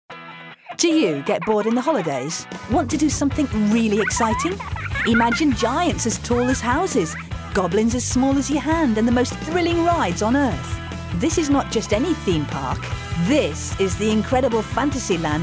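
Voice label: British English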